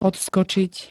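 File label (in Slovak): "odskočiť", Slovak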